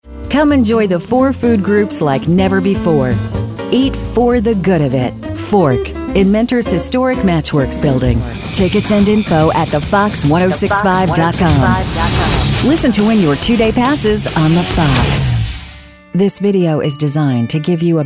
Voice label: Voiceover Demo